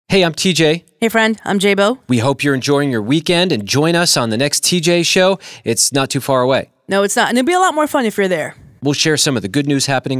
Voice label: SUN PROMO